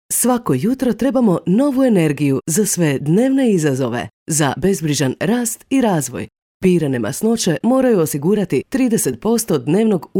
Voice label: female croatian voice over sales